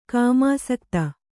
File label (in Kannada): "ಕಾಮಾಸಕ್ತೆ", Kannada